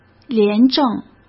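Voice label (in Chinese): "廉政", Mandarin Chinese; hsk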